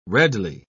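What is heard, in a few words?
"redly", English, British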